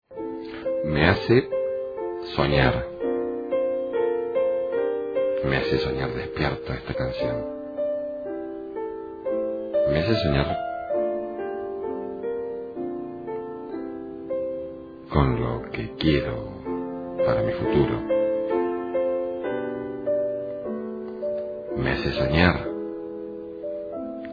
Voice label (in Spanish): locutor canario